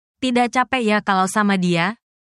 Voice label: indonesian phrases